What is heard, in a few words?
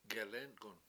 bend it, Washo; gelEnk7un